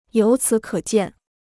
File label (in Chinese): "由此可见", Chinese